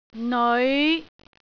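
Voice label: "neoi5", Cantonese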